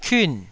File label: "kiun4", taiwanese syllable